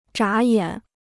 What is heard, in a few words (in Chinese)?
"眨眼", Chinese